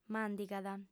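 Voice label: "màndigat", female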